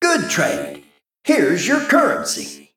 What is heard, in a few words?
Male Mech After Selling